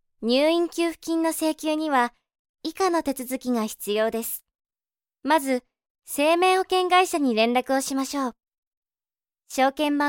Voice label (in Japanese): ナレーション; 入院給付金